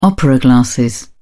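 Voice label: "opera glasses", British English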